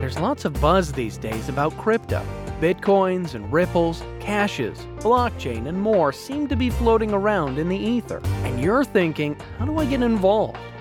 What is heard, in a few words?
explainer